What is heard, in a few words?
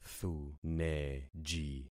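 "thuneji", concatenated syllables; Affixing experiment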